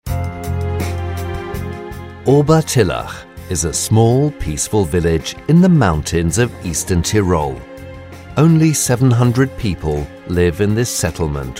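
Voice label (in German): British English, Kommentar Neutral; Fremdtake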